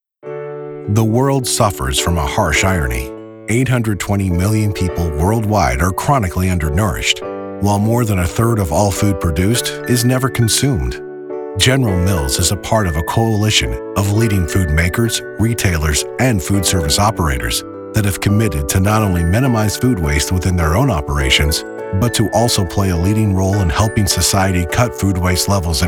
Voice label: Corporate